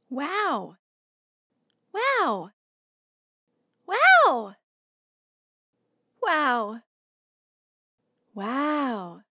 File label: Wow Vocal Expression Group